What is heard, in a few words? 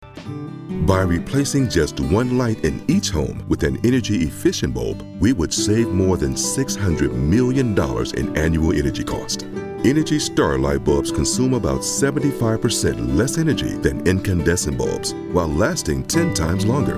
Guy Next Door; Energy Star Light Bulbs